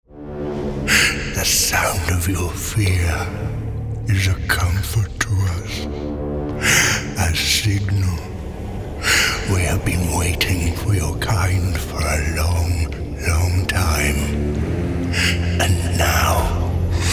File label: Evil Monster / Inhuman, Primal, Menacing; video game or animation characters; Character Animation Demo